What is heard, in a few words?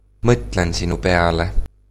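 thinking of you, male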